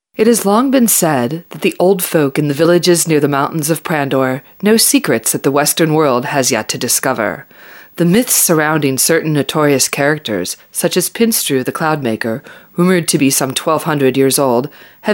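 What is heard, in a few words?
F EN documentary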